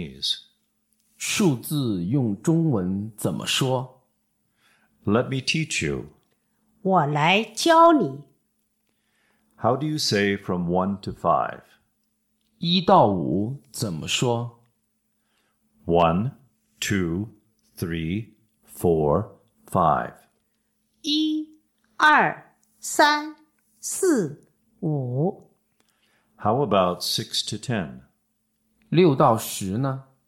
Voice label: Dialog